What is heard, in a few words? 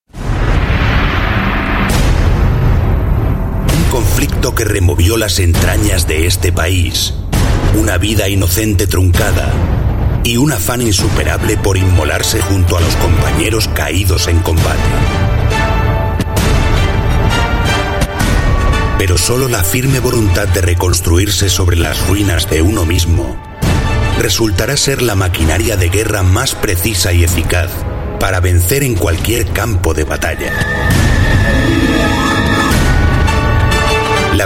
Euro Spanish Trailer